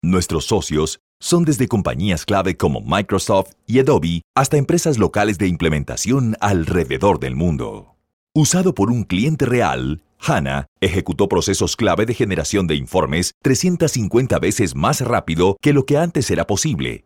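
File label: Spanish Latin American Corporate; GoLocalise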